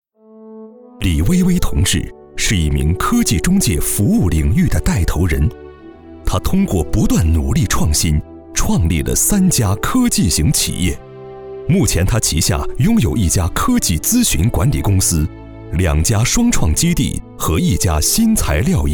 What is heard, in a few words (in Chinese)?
男 专题片 人物